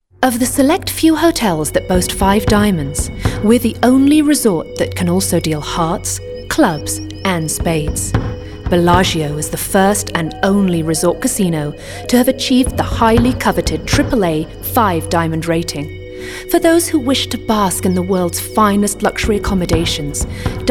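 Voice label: English female; lektoring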